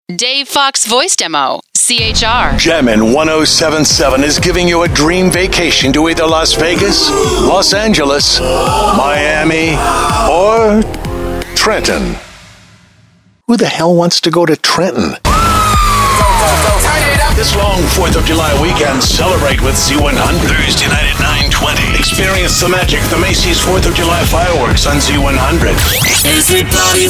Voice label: chr, radio imaging

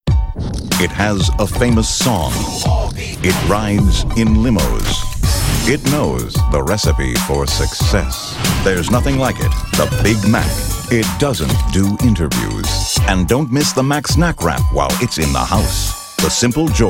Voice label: commercial, men

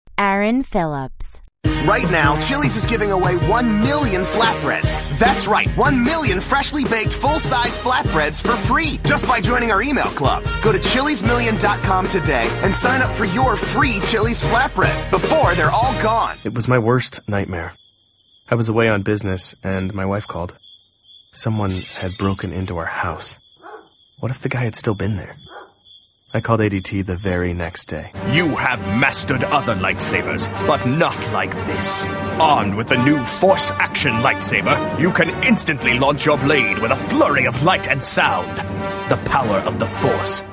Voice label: commercial, men

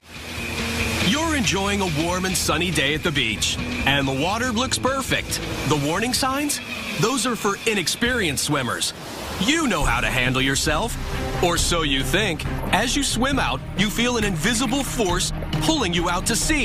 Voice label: narration male

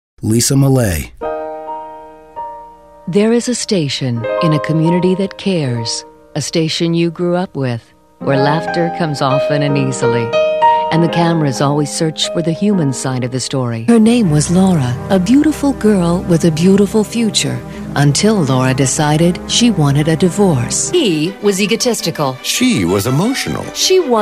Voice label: Promo, women

